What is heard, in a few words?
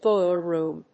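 "boiler room", English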